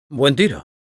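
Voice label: nice shot, Spanish; Buen tiro.; Spy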